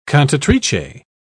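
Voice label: "cantatrice", British English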